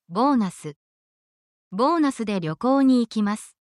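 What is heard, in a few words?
Japanese word, essential Japanese phrases; for your workplace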